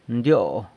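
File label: "ndyo", San Lucas Quiaviní Zapotec; fat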